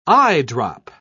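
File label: "eyedrop", British English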